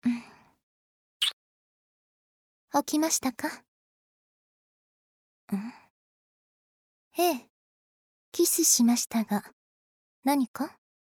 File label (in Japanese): ちゅっ…起きましたか？ …？ ええ、キスしましたが…何か？, ASMR アラーム音声